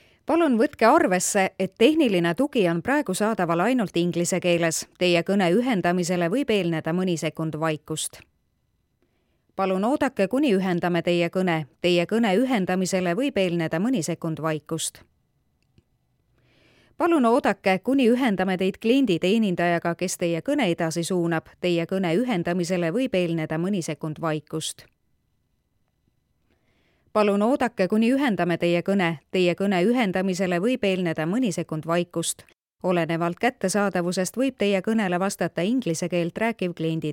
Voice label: estonian female; dictor; dictor-sounds

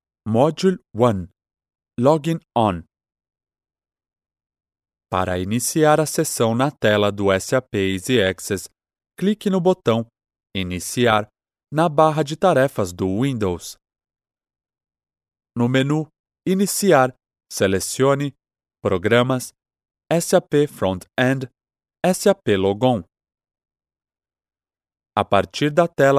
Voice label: portuguese brazilian male; dictor; dictor-sounds

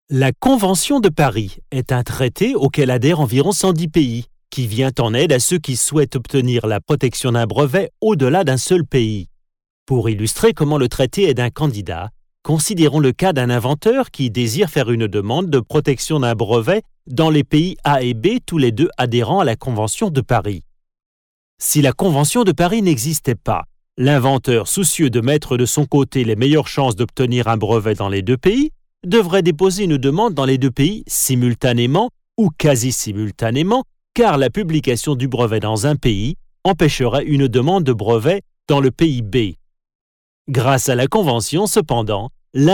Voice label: french male; dictor; dictor-sounds

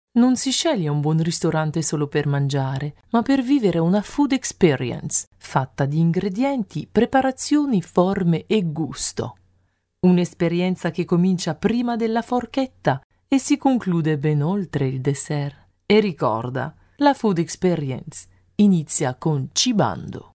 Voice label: italian female

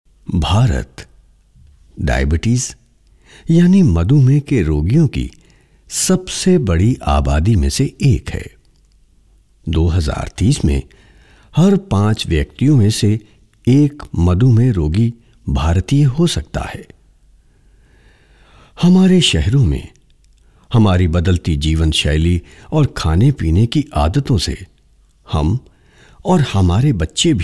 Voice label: hindi male; dictor; dictor-sounds

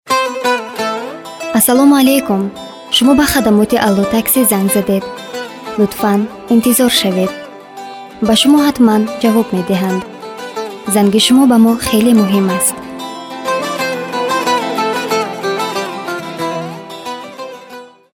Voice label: tajik female

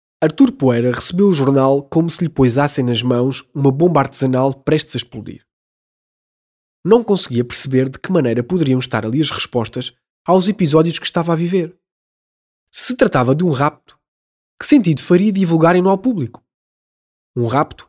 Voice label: portuguese male; dictor; dictor-sounds

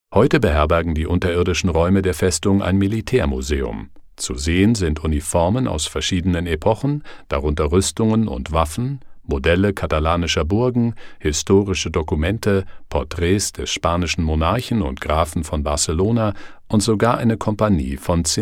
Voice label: german male; dictor

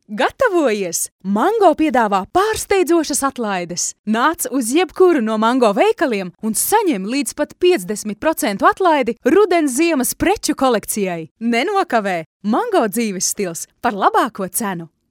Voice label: latvian female

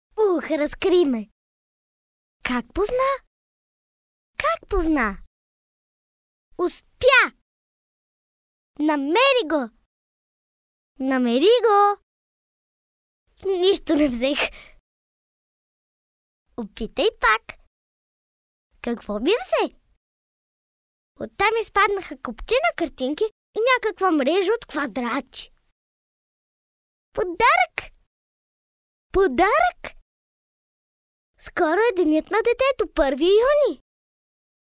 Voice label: bulgarian girl; dictor; dictor-sounds

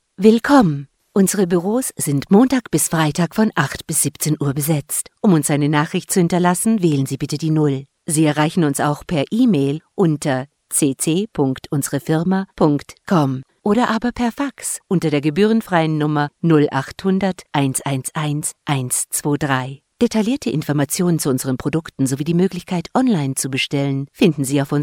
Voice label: german female; dictor; dictor-sounds

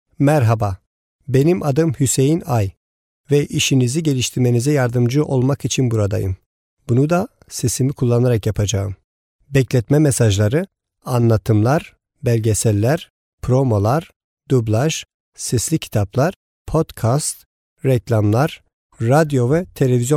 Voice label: turkish male; dictor-sounds

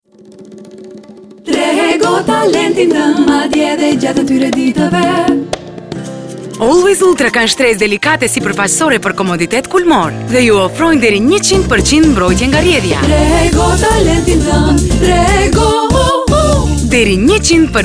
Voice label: albanian female

